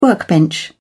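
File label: "workbench", British English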